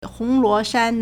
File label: "hong2 luo2 shan1", Chinese